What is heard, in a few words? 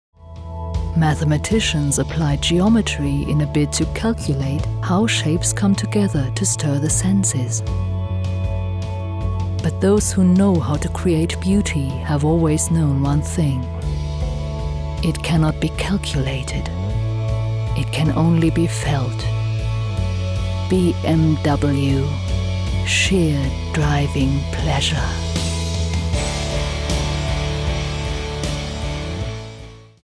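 German, female, English Commercial; BMW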